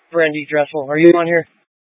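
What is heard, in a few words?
Police Dispatch, Scanner audio recording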